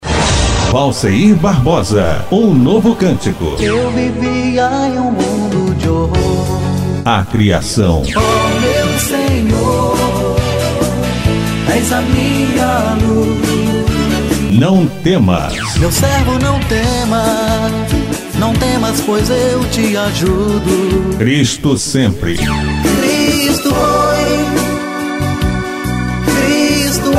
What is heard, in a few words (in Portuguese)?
chamada radio